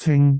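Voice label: "cing6", Cantonese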